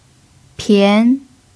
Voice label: "pian2", mandarin pinyin